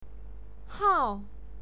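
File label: "hao", Mandarin Chinese, fourth tone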